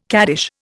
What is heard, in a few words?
"caddish", Indian